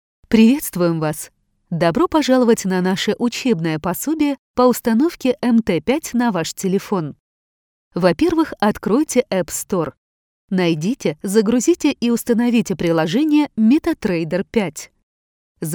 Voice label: russian e-learning

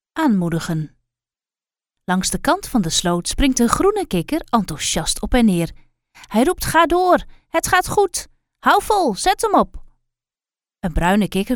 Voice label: dutch audiobooks

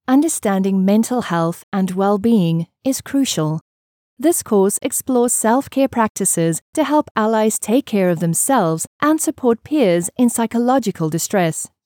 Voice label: english south african medical narration; Synchronsprecher aus Südafrika

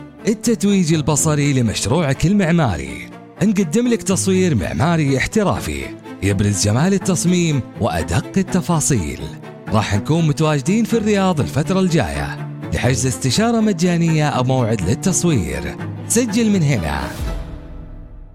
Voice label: arabic announcements

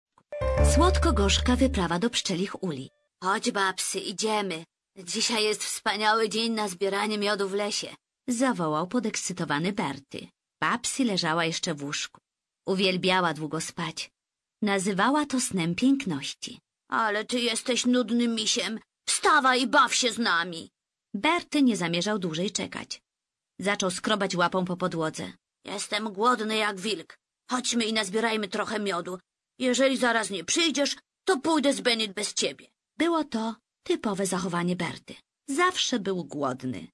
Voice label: polish audiobooks

